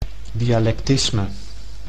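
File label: "dialectisme", Dutch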